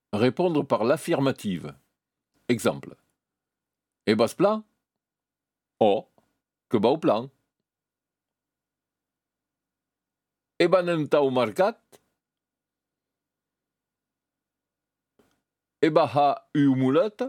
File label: affirmative, gascon noir; a hum de calhau en negue